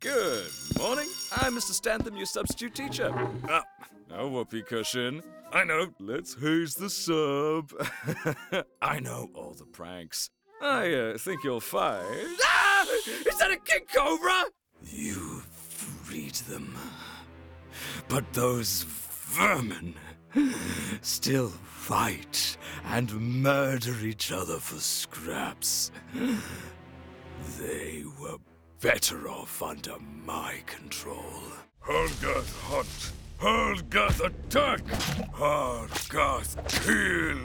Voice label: Animation

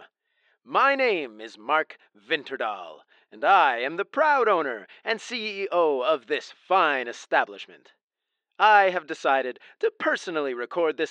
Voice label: tutorial trainee radio

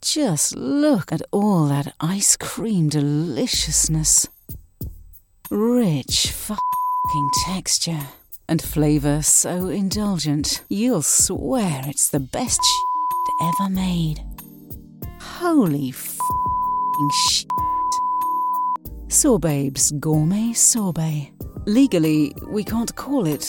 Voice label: Cheeky Product Ad Spots; 'Bleeping Delicious!'; NOT The Best Ice Cream Ever'

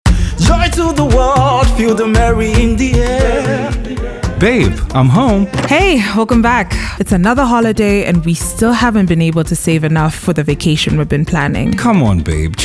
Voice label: Radio Ad, African Voice Over Talent; bilingual African voice actor; Binance

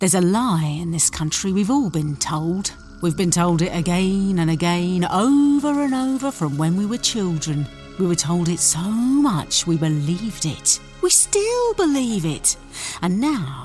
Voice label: Quirky London Character Book Trailer; 'The Truth About Monsters'

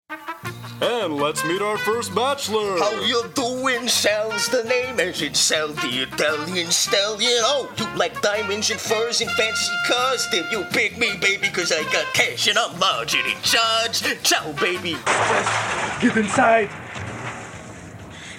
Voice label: Commercials Characters

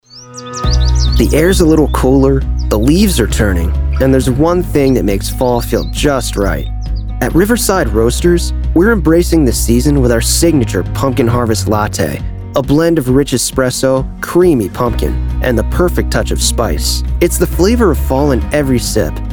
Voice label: Commercial

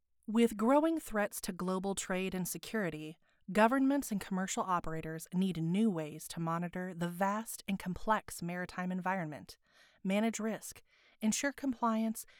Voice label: Product Explainer; Planet

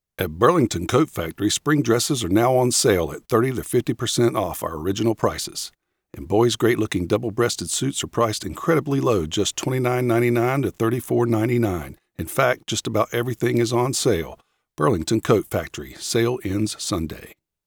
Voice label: ad; Burlington Coat Factory

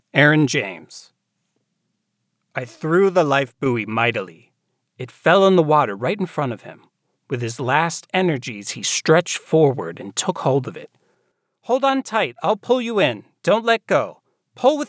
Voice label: 1st person fiction narrator

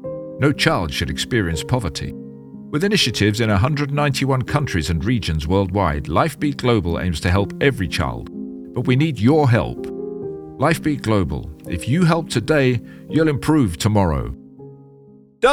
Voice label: Commercial Demo Reel

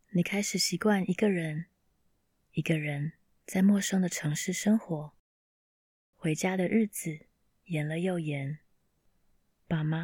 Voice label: emotional; female voice in Mandarin Chinese

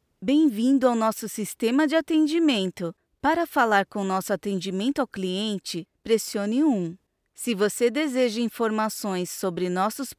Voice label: IVR

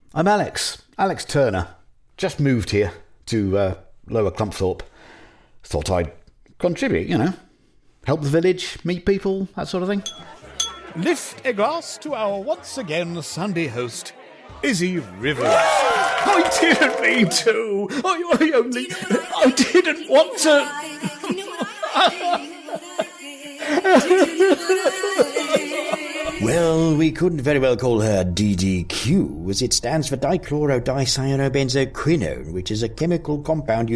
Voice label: Audio Drama; British voice actor with a voice that has been described as "a warm hug"

